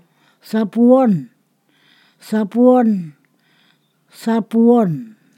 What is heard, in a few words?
"touch", Ponosakan